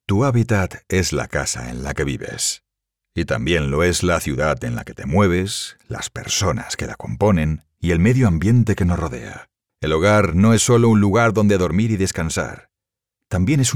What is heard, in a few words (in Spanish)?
narración